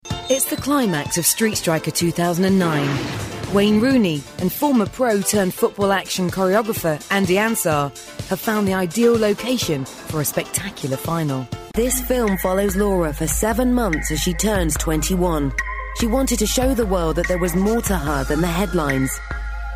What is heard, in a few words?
NARRATION